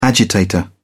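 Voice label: "agitator", British English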